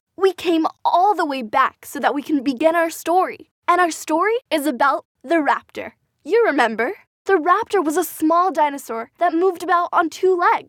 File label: Childrens Narration